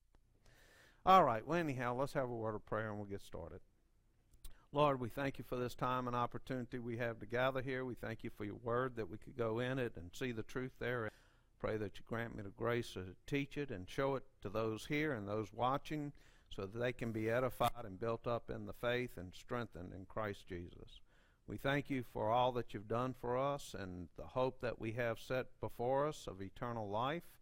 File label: Preaching